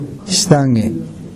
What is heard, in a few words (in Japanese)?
"sitage", minami yamagata